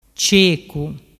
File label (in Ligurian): "cecu", dialettu